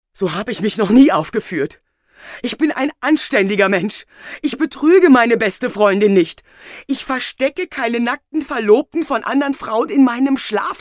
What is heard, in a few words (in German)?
Rolle aufgeregt